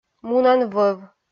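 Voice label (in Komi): "мунан вӧв", Komi, female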